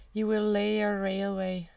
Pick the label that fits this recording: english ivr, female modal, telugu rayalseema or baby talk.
female modal